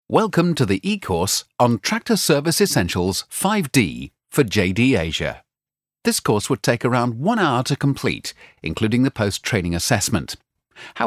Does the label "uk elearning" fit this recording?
Yes